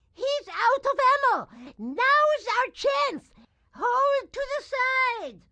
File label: grandma chopper hold to side tutorial; Blind Drive